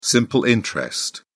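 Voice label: "simple interest", British English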